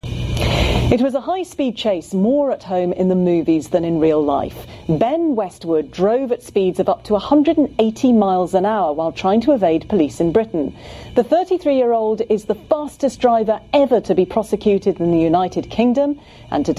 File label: audi commercial